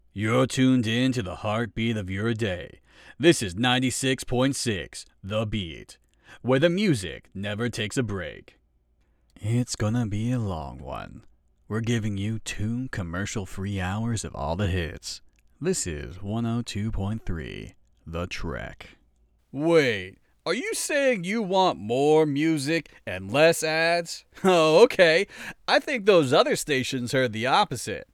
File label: Radio Imaging